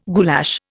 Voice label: "goulash", Indian